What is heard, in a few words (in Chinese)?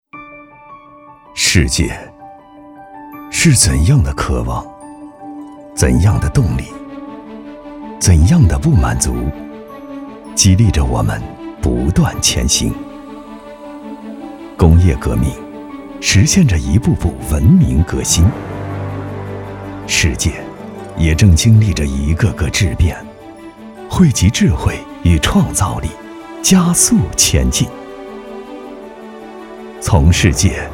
男 宣传片 科技 智能